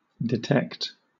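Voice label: "detect", British English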